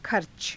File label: "kharca", Hindi; खर्च; 出費